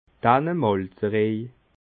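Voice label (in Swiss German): "tannemalzerej", Alsatian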